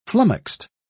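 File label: "flummoxed", British English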